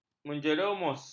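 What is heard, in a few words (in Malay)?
"menjerumus", Malay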